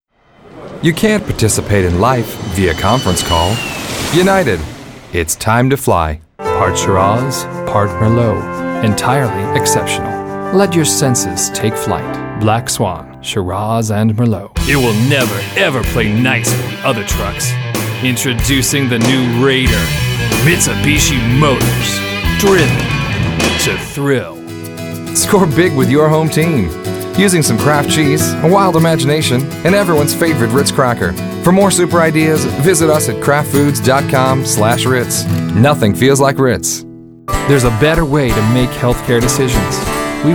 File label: English, males